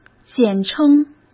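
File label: "简称", Mandarin Chinese; chinese-flashcards; hsk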